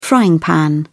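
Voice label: "frying pan", British English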